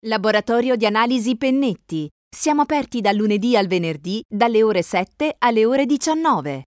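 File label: italian female telephone, Bright Italian Female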